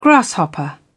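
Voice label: "grasshopper", British English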